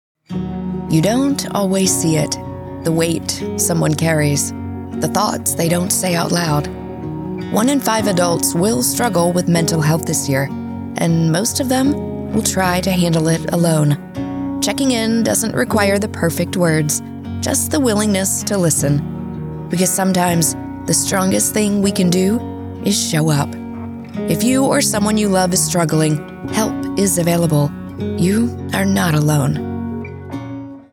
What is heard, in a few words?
PSA